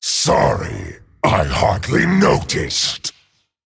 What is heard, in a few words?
Male Dragon Sorry; en